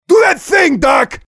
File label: mobster activate charge